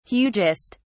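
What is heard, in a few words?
"hugest", English, uk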